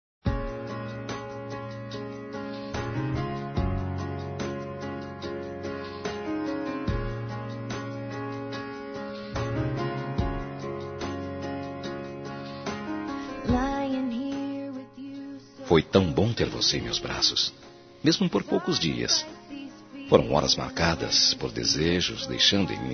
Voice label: Mensagem para ex Masculino, Telemensagens; Telemensagens 2017; Românticas

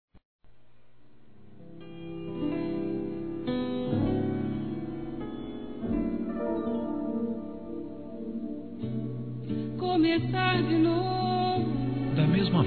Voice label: OTIMISMO M; Telemensagens; masc

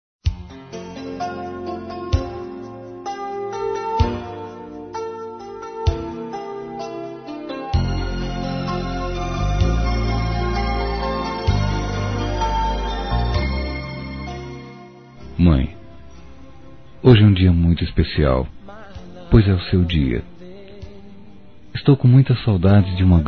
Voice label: Dia das Mães Filho para Mãe Masculino, Telemensagens; Telemensagens 2017

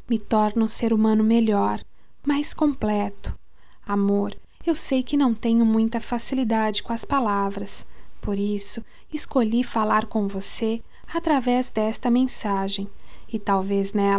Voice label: Voce é muito importante F

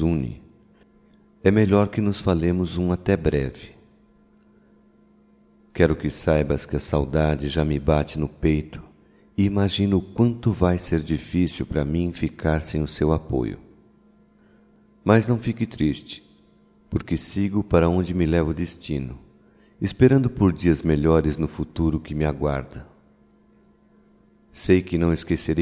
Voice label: DESPEDIDA (DE QUEM VAI) - M

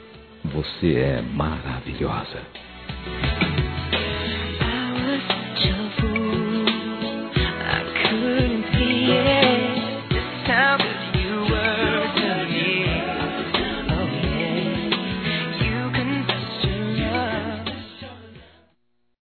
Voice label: pelos momentos, masc; Telemensagens 2017

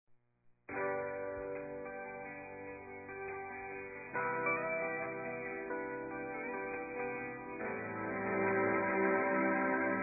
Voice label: Dia das Mães Sogra Masculino; Telemensagens 2017

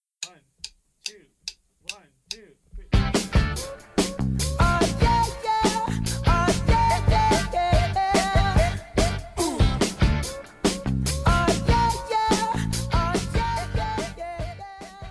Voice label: CRIANÇA M, Telemensagens Aniversários

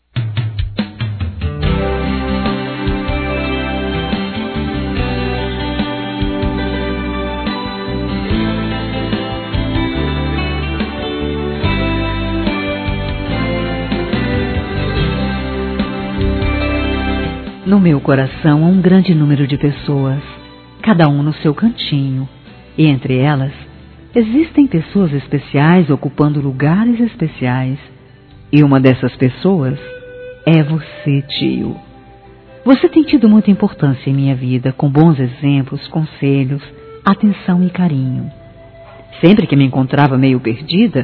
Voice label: tio, Aniversário Distante, Fem; Aniversários

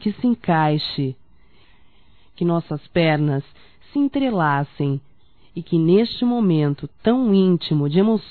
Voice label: DESEJO DE VOCÊ (F); Românticas

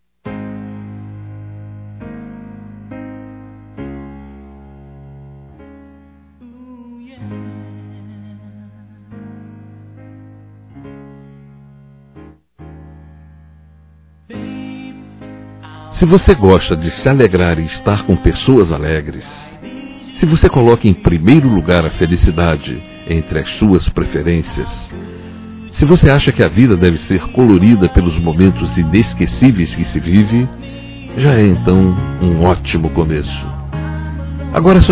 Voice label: Convite para Festa Masculino; Telemensagens; Convites e Agradecimentos; Telemensagens 2017

